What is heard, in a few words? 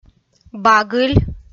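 "багыль", Komi, female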